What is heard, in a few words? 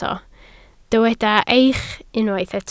"eich", Welsh